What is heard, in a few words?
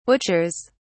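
"butchers", English, British English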